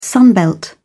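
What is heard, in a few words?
"sunbelt", British English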